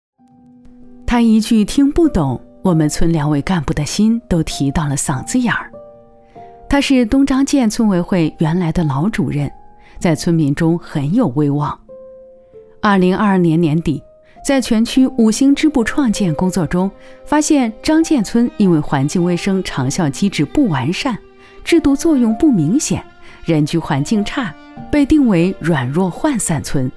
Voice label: 纪录片自然讲述感

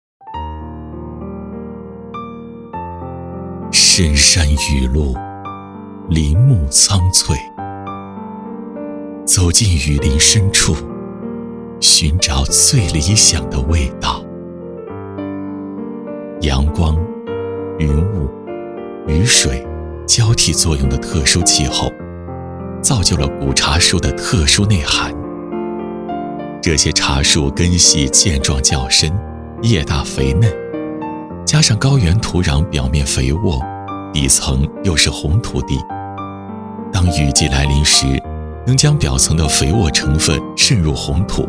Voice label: 宣传 茶业配音 大气深情; 瑞升号

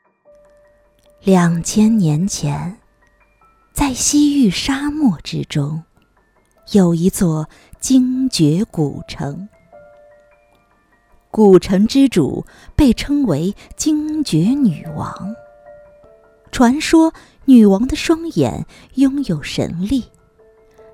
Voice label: 玄幻小说试音

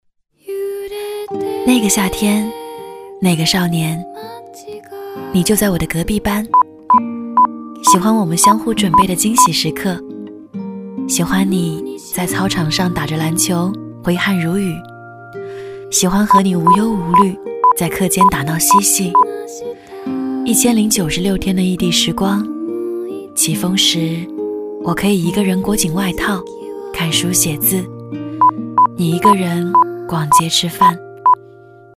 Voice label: 旁白 清新 文艺; 猫的树

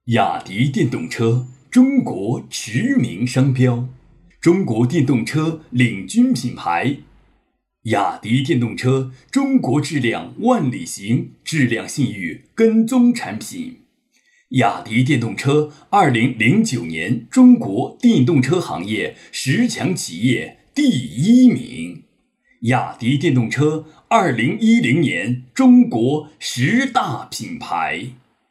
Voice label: 沉稳大气; 雅迪电动车

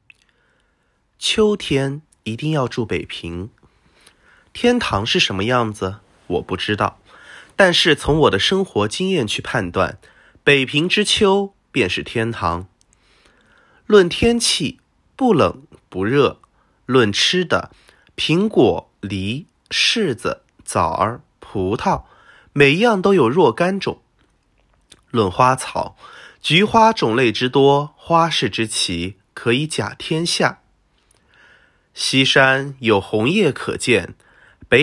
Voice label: 录音旁白

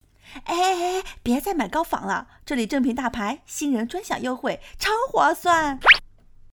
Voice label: 广告

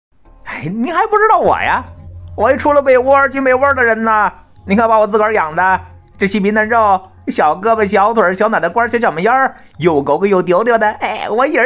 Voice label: 京腔; 大金牙

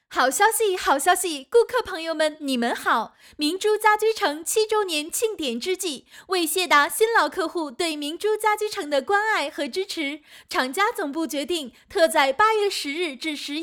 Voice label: 家具城促销

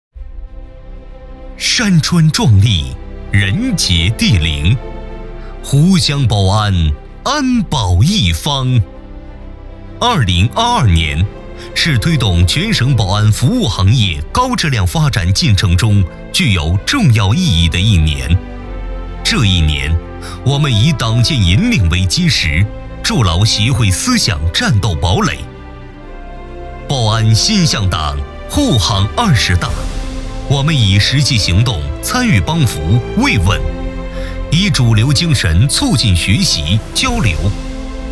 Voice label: 安保 宣传 宣传片; 湖南省保安协会理事会

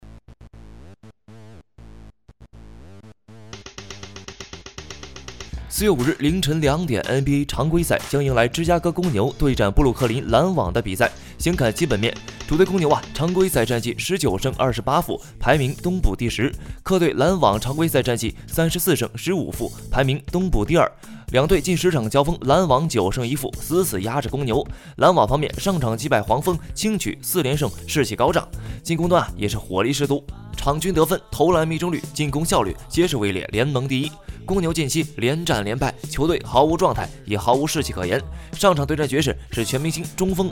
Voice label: 篮球解说

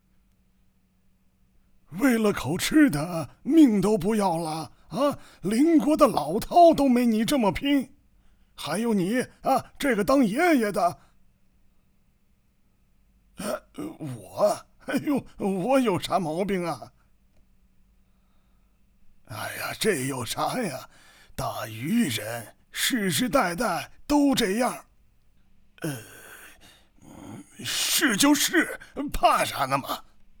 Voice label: 老年

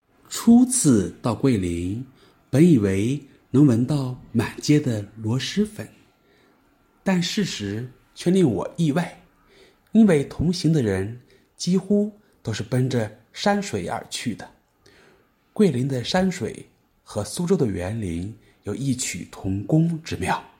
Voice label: 游记配音

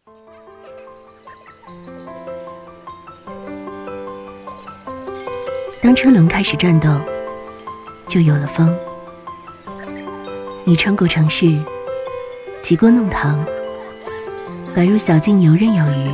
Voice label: 品牌广告 清新自然美好; 品牌广告感受城市微风清新自然美好

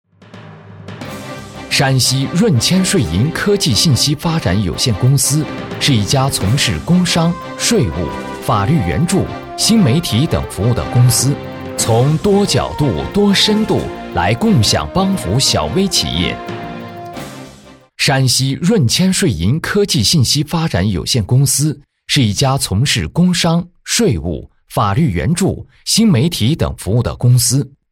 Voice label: 解说; 润谦税银

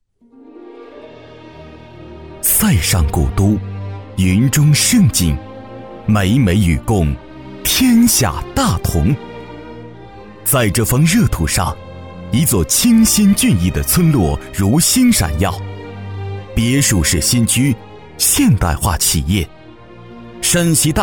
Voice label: 宣传片

